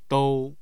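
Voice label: "dou1", Mandarin Chinese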